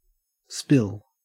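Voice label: "spill", Australian English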